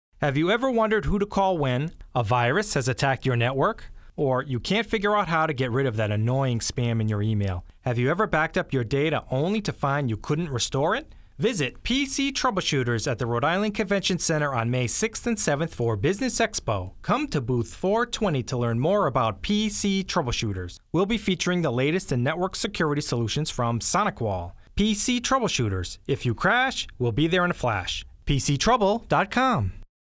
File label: radio spot